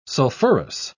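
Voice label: "sulfurous", British English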